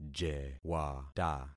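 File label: "jewata", concatenated syllables; Affixing experiment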